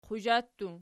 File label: "quzha", Archi